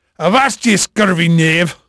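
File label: Portuguese male, voice; Pirates Of The Caribbean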